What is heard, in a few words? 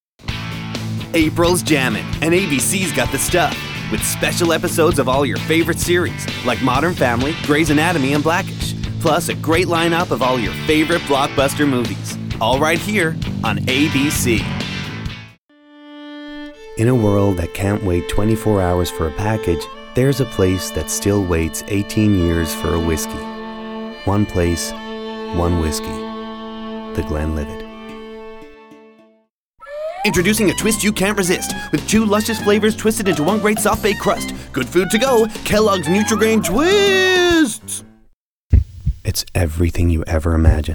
American Commercial